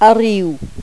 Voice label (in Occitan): "arriu", gasconha